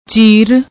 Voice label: "cheer", Punjabi; ਚੀਰ; چیر; noun, masculine; slight cut in skin; parting line of hair